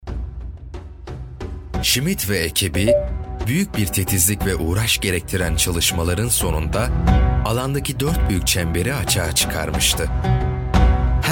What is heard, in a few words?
Turkish male